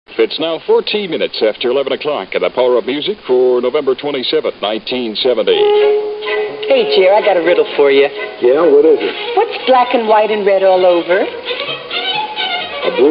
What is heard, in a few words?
Stars & Stripes Ad